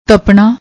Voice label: "tapnaa", Punjabi; ਤਪਣਾ